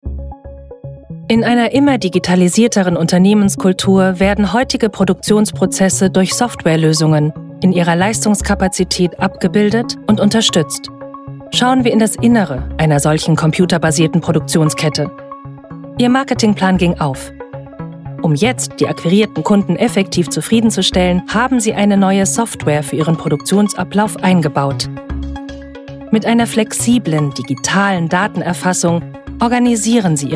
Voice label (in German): Imagefilm; Digital Parcel Protect